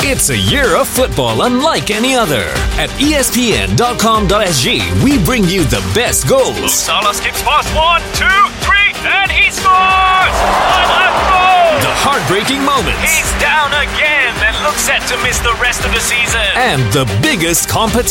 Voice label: Asian English Radio; ESPN 30s

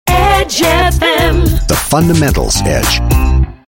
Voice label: US English FM Sting; Edge

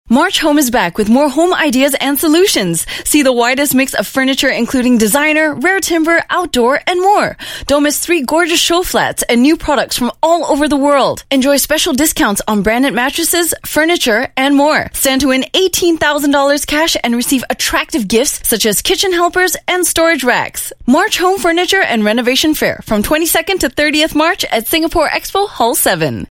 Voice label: Asian English Home Furniture

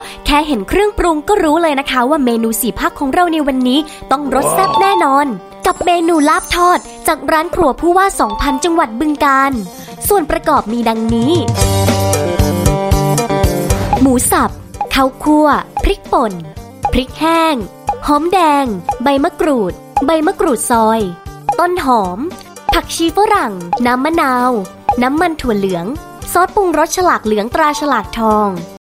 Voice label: Thai Female Narration Lively

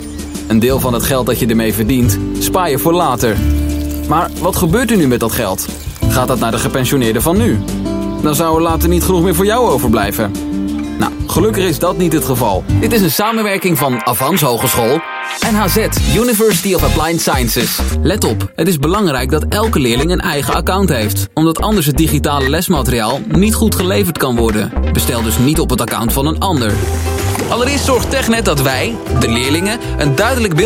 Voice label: Dutch Voice, Male

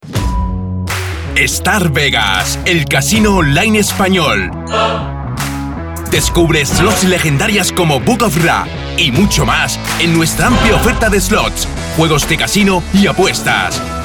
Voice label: Euro Spanish TVC; Starvegas

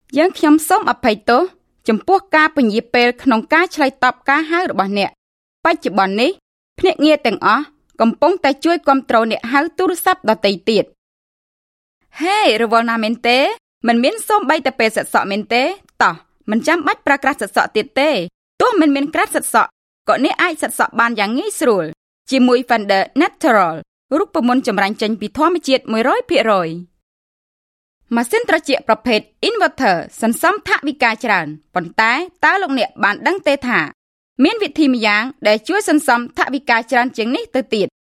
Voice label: Khmer Female, voiceovers

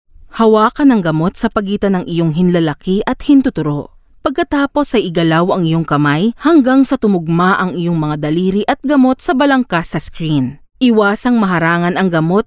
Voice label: Tagalog Voice Sample

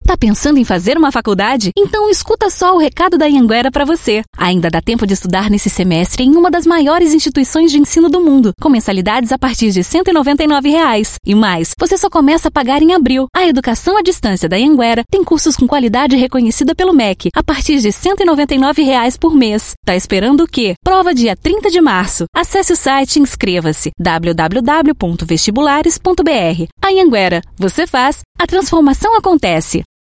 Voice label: Brazilian Portuguese, Brazilian Portuguese Female

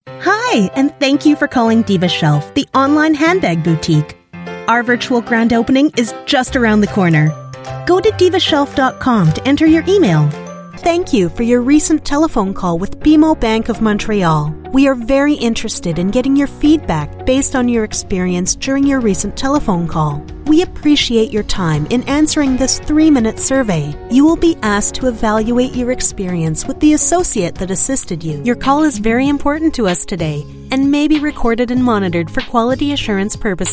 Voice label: US English Phone Systems, English US Female

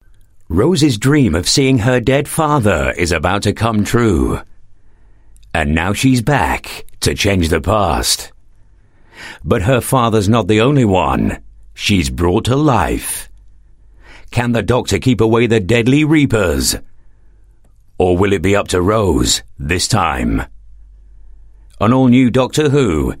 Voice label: UK English Entertainment Promo; BBC

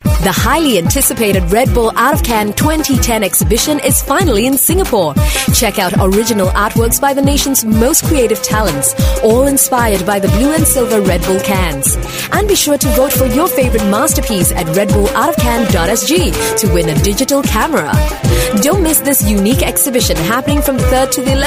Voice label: Smooth, English Singaporean Female; Redbull

